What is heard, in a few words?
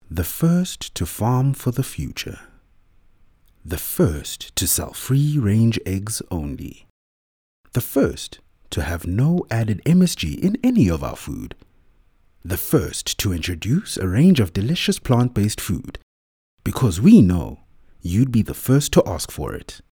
English Soft Sell; OSM Talent